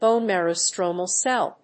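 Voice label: "Bone Marrow Stromal Cell", English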